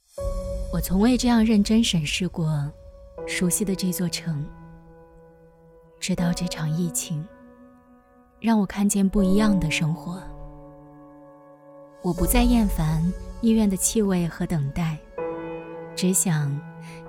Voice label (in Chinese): 旁白; 看见不一样的生活